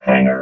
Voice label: "hangar", robot